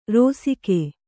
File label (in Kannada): "ರೋಸಿಕೆ", Kannada; rōsike; noun; a strong distaste; nausea; loathing; disgust.